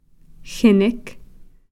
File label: "xnikh", acorn dough